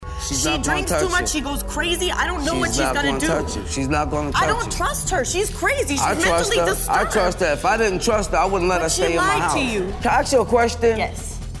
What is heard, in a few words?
accent; Buckwild